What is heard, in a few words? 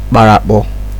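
"kparakpor", Nigerian Pidgin, male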